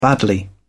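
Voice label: "badly", British English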